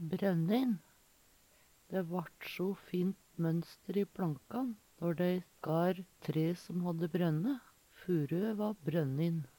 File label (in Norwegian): bronnin, Numedalsmål